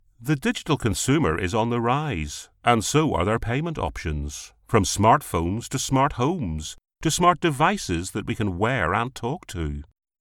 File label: Web Promo